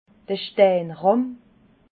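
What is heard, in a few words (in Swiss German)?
"steikrab", Alsatian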